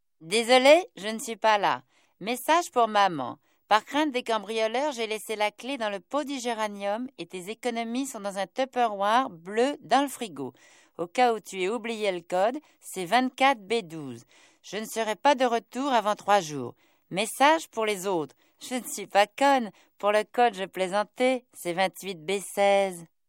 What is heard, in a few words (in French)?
Message Drole